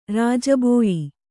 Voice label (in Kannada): "ರಾಜಬೋಯಿ", Kannada